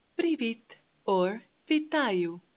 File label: Ukrainian, hello